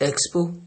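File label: "expo", Nigerian Pidgin, male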